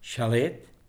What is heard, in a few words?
"chalet", es